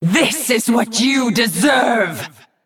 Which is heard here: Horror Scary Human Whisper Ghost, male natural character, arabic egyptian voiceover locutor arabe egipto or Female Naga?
Female Naga